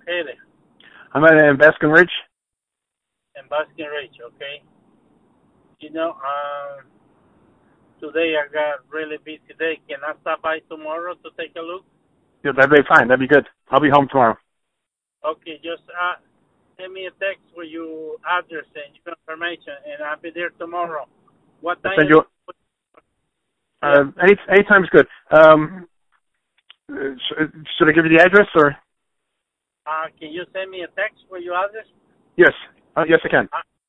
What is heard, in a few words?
call tree service; 1sparkdigital